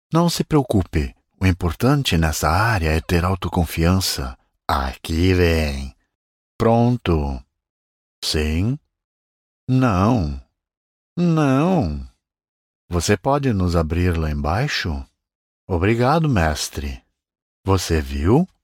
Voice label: Brazilian senior